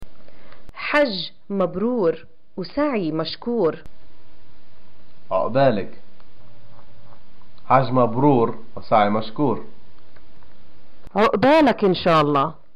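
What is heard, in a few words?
"greeting hajj", Palestinian Arabic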